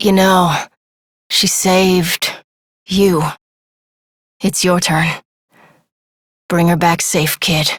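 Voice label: Adult, English; New War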